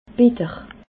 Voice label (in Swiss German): "bitter", alsace